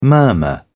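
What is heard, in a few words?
"murmur", British English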